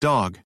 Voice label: dog, American